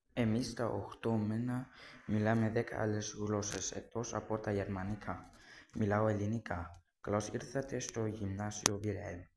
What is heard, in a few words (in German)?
griechisch